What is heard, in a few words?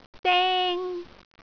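"deng1", Cantonese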